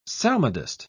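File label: "psalmodist", British English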